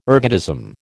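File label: "ergotism", American English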